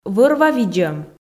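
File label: "вӧр-ва видзӧм", Komi, female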